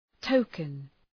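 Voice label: "token", English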